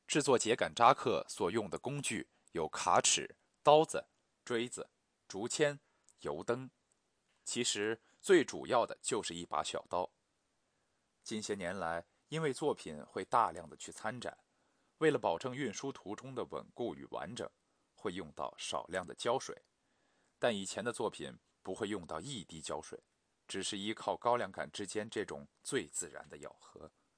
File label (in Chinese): 样音纪录片配音